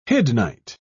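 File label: "hiddenite", English, British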